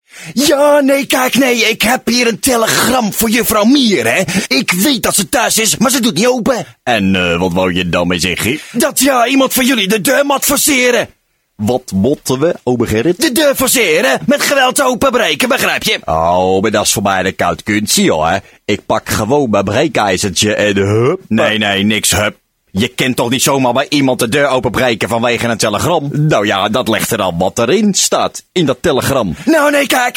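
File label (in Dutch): Karakter demo; voice-over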